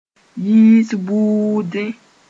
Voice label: "yiis buuudẽ", Mooré